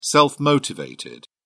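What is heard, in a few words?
"self motivated", British English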